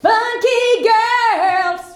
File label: "FUNKY GIRL", SHOUTS; Best Service; Hyper Dance